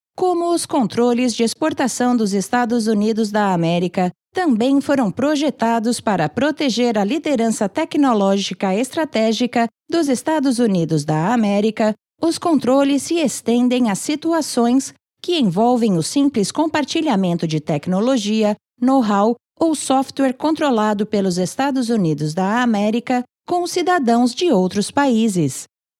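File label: Brazilian Portuguese elearning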